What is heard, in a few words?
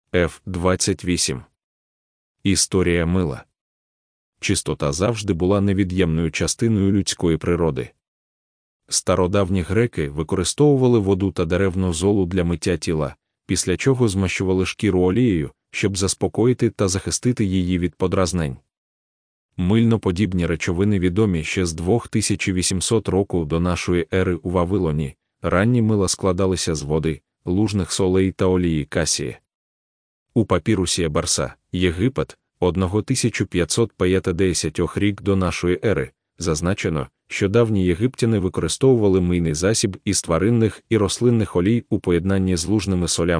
ukrainian; i istoria tou sapouniou